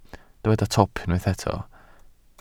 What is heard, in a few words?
"twp", Welsh